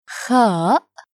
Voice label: "kha", Arabic letter